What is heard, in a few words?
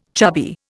"chubby", Indian